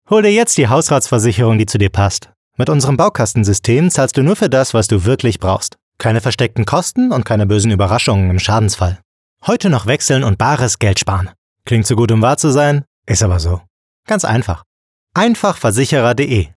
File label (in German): Werbung; Einfachversicherer